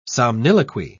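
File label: "somniloquy", British English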